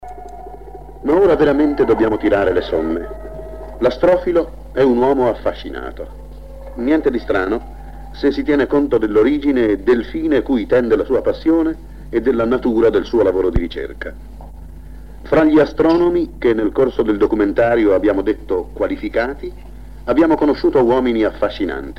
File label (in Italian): intervista; Le Luci di Horn; Ethnos 2017; Il giornalista Rai intervista